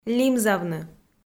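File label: "лимзавны" Komi, female; kpv audio dict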